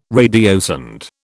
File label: "radiosonde", British